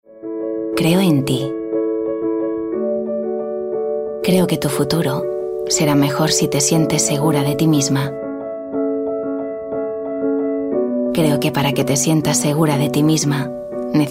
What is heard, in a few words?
female spanish baby food comercial; ideasonora